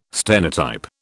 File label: "stenotype", British